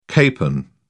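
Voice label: "capon", English, British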